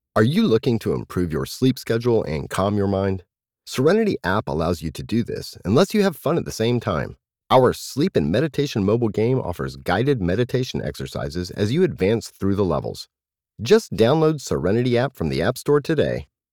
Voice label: Online Ad Voice Sample